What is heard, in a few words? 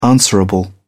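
"answerable", British English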